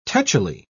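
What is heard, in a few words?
"tetchily", English, British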